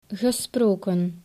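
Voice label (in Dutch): "gesproken", Dutch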